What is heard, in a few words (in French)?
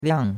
"liang4", chinois; Cours-de-Chinois